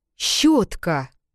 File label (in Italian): Щ in russo